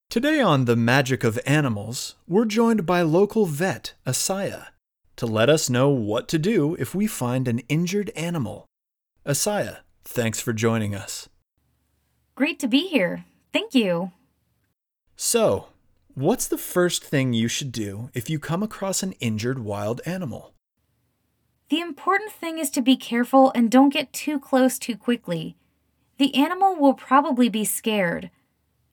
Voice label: Conversation; Real Heroes 4